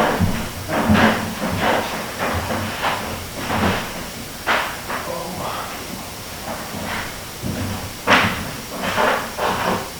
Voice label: Kitchen Unexplained Faint Whisper (Oh my) AMPLIFIED